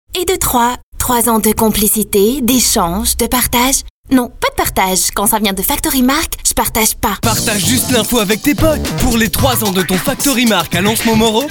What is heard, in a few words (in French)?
pub, voix off; FACTORY MARQ